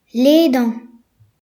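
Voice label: "spread", Breton; gcompris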